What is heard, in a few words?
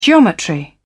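"geometry", British English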